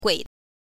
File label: "gui", chinese